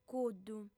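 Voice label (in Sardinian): "cuddu", female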